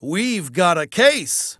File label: VO en